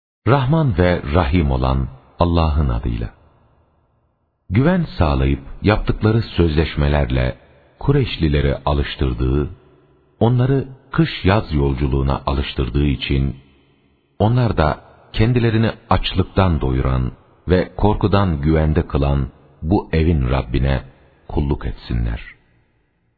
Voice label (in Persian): ترجمه گویای ترکی استانبولی; سوره قریش